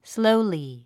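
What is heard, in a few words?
"slowly", English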